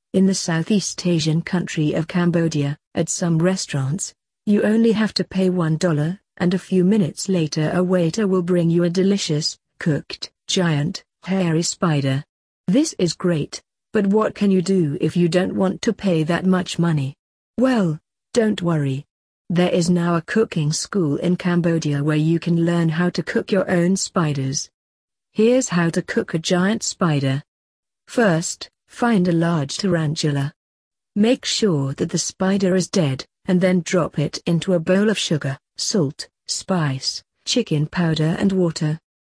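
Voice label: British; cook spiders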